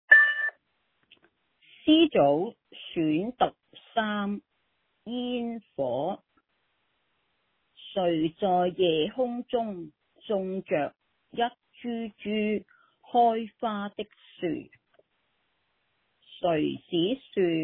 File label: Cantonese Read Aloud